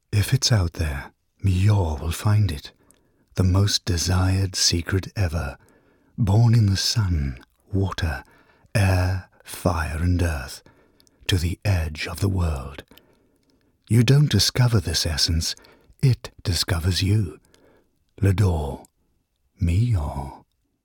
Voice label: English Intimate

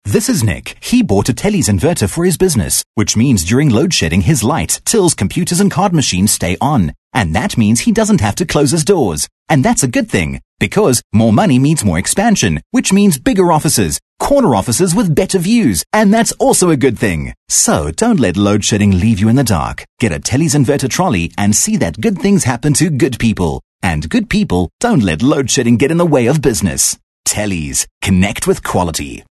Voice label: English Conversational

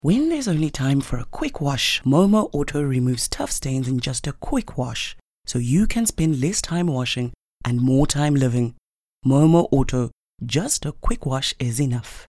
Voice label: English Soft Sell

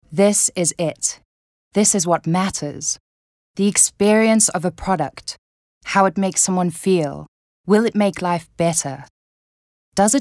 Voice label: English Corporate

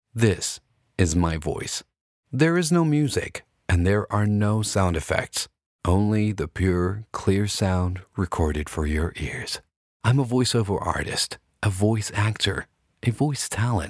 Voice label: English American Accent; OSM Talent

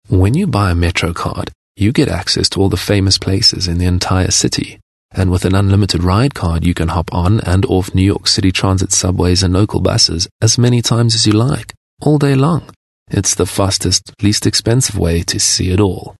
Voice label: English Conversational

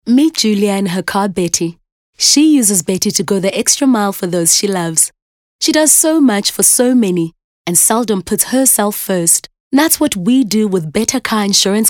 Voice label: English Conversational

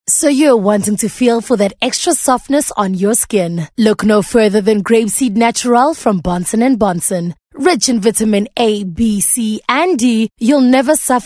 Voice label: English Softsell

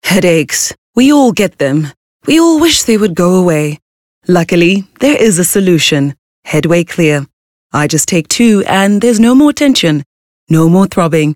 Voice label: English Conversational

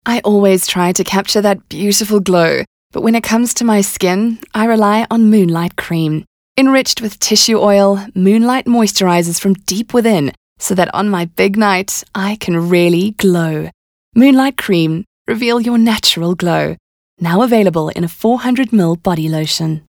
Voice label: English Soft Sell

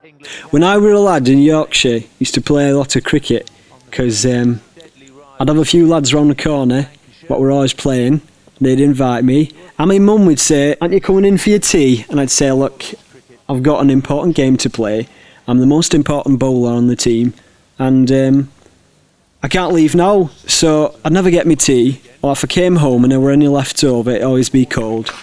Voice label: Cricket Yorkshire Neutral

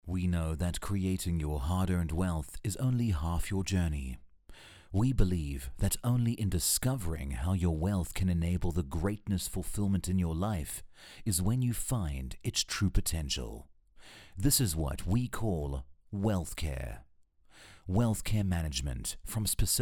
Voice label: English Serious Knowing Corporate Delivery

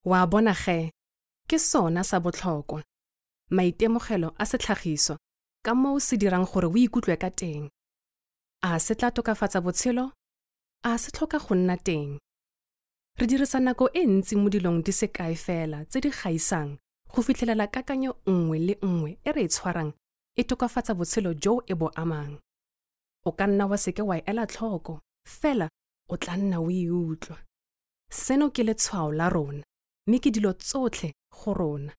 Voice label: Tswana SoftSell

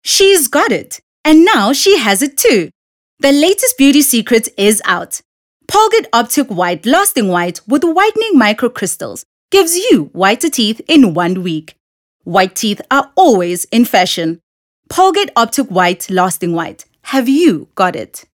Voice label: English Bright; OSM Talent

